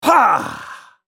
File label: spell cast effort; masteryi